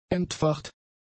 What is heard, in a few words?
"entfacht", German; adjectives